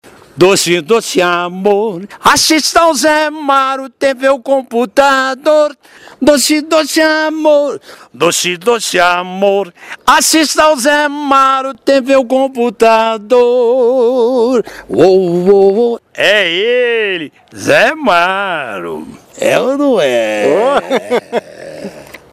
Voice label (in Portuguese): vinheta vai e volta; TRANSFORM